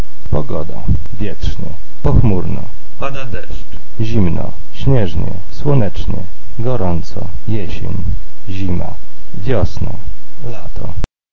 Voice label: polish weather